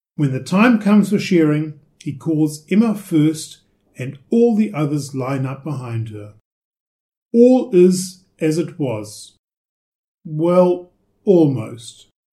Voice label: englisch

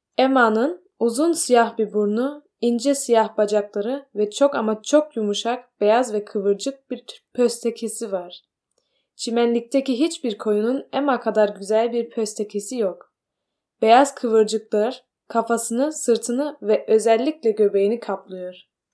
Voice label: türkisch

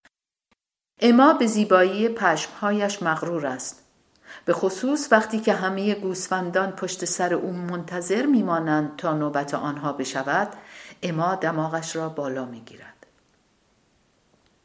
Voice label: farsi

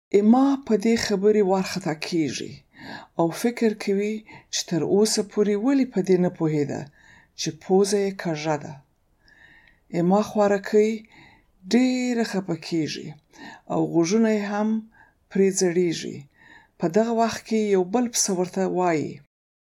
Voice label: paschtu

